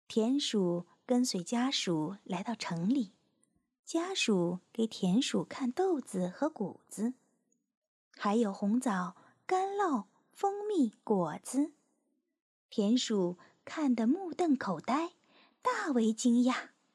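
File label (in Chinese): 田鼠, 女配音 少年（13-17） 普通话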